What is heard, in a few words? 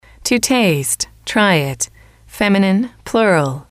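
to taste try it, English, female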